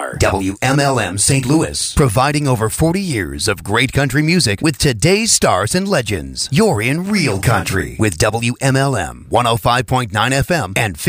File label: Radio Demo